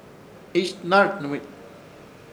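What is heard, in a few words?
"Ichhnarnɨmich", Chamacoco word, descansar; Ishir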